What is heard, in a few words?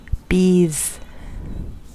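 "bees", US English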